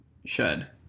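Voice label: "should", American English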